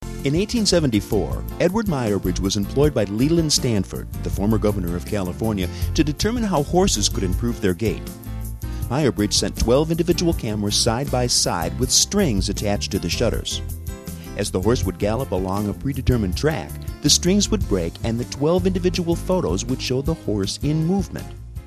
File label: corporate